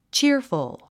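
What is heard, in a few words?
"cheerful", English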